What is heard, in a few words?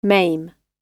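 "maim", British English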